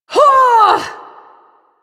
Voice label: Ability Long Cast, Barks; Warframe; Corpus Lich; Persona B